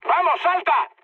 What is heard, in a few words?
bailout, mood high, speech; War Thunder